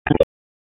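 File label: Police Spec Ops Tac, radio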